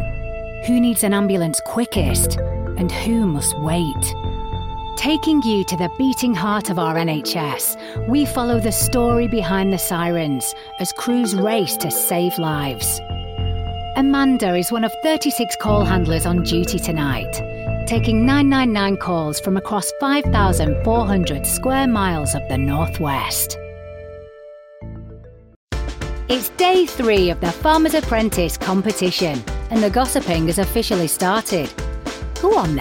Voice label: TV DOCUMENTARY, Northern/Manchester/Lancashire British Female Voiceover